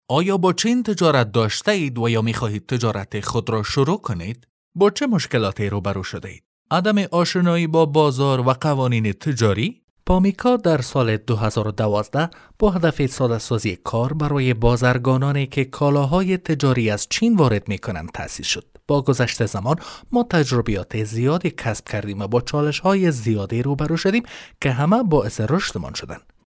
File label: Dari Commercial